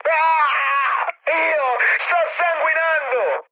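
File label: Italian damaged pilot mood high, speech; War Thunder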